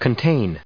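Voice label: "containing", US English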